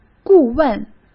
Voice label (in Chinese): "顾问", Chinese; hsk